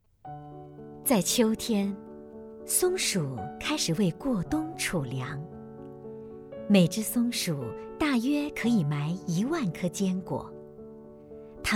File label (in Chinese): 松鼠纪录片